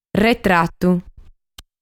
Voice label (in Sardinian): "Retratu", sardu